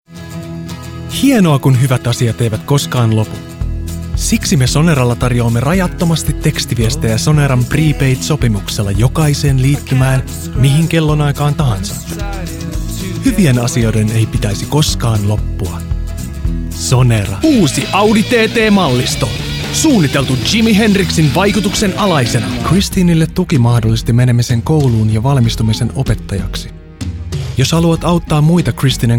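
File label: Finnish Commercial; GoLocalise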